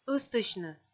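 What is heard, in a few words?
"ыстысьны", Komi, female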